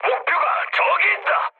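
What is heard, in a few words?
Korean near waypoint mood high, speech; War Thunder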